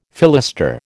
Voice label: "fillister", American

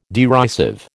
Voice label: "derisive", American

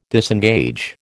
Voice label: "disengage", American

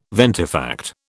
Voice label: "ventifact", British

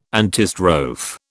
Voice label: "antistrophe", British

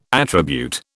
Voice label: "attribute", British

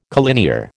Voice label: "collinear", American

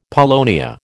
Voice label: "paulownia", American

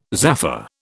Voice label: "zaffre", British

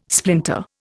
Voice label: "splinter", Indian

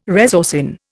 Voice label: "resorcin", Indian

